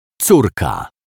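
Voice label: "corka", Polish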